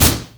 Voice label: "oh", basicattack; LoL; lulu

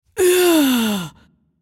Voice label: dying, en US; Judicator; Character Voices - English

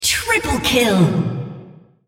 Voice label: female Triple Kill

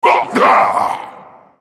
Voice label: dying, en US; GatekeeperGalio; Character Voices - English

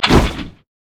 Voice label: battlecry; Xin Zhao

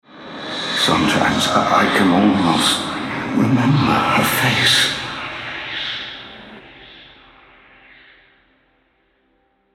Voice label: Altar Capture, Male

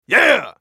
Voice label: effort; Brolaf; Character Voices - English

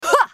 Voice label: effort; Katarina; Character Voices - English

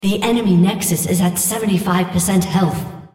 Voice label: female Nexus Enemy; Odin

